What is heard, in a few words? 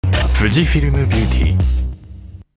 SEXY beauty tvc VO; fujifilm